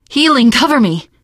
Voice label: cover me, teengirl; survivor voice